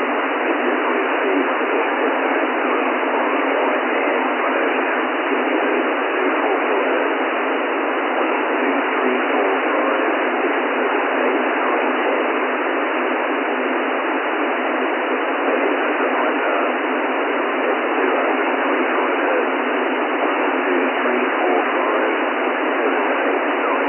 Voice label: Maximum Clipping 6dB SNR narrow